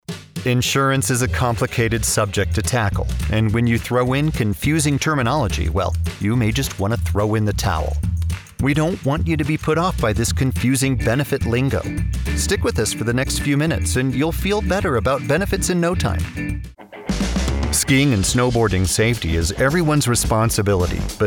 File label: narration